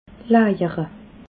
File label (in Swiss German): "lajere", alsace